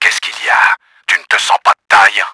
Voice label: ANGRY, french; KRAMER; Battle Engine Aquila

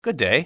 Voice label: good day, english male; XBOX; civ

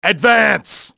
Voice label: advance, english male; XBOX; enemy

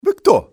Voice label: "who are you", male; XBOX; civ

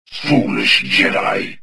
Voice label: anger; XBOX; galak

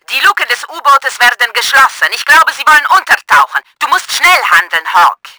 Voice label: SUB WARNING, german; Battle Engine Aquila

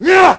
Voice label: man attack; Pirates Of The Caribbean; DUEL

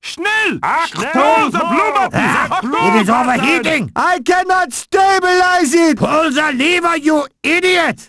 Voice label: Panic; Prisoner Of War

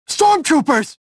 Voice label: suspicious, prisoner

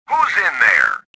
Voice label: suspicious; swamp

